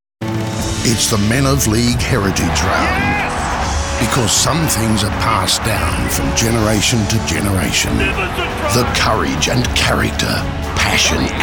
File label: promos